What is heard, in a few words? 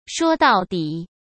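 "说到底", Mandarin Chinese; hsk; chinese-flashcards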